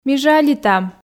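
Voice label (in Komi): Komi, ми жалитам, female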